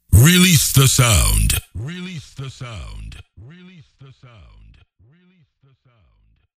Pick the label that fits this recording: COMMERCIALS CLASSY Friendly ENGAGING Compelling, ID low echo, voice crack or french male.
ID low echo